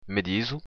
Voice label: my name is, occitan; Me dison

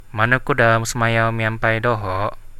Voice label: dance, Central Dusun

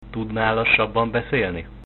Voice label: slowly, Hungarian

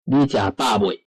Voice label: have you eaten taiwanese

